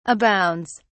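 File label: "abounds", English, British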